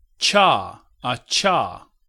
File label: voiceless postalveolar affricate; MycroftAI; mimic3; phonemes